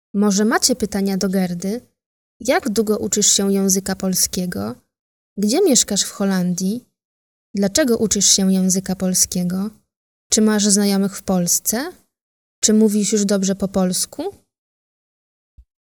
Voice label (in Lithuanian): MOT Lenku balsas, moteris